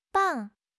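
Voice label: "bang4", Chinese; HSK4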